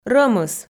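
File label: "рӧмыс", Komi, female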